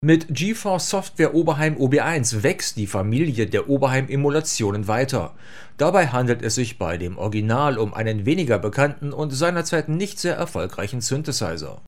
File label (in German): Rode Interview Pro frontal 20 cm